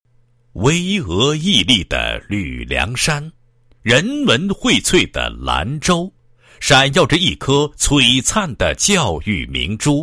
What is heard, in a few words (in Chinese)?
男 学校 宣传简介; 岚城小学宣传简介